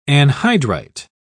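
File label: "anhydrite", British English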